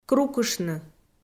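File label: "крукыштны", Komi, female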